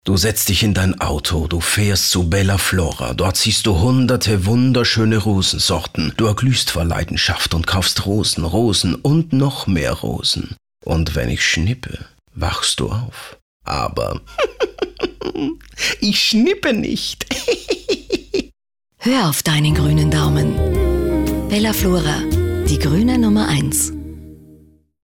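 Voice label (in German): Austrian, Schauspiel, Dynamisch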